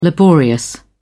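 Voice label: "laborious", British English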